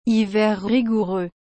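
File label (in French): "hiver rigoureux", French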